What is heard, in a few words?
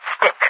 stick, overwatch radio voice; Garry's Mod; hl2